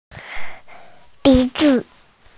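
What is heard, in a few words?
"nose", Mandarin Chinese, female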